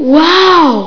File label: WOW WOMAN; psion cd vol1